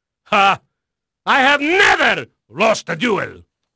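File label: Spanish male, voice; Pirates Of The Caribbean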